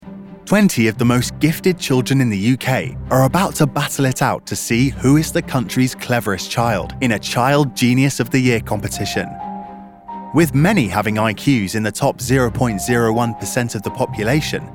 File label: English British documentaries